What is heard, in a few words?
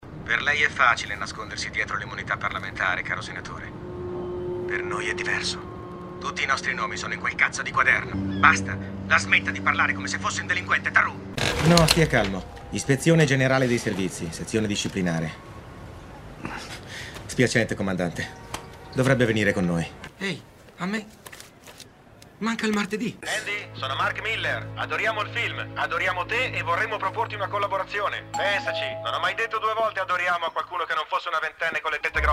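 doblaje, Italian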